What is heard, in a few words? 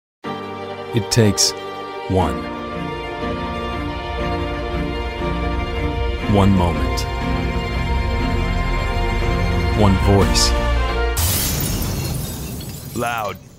men commercial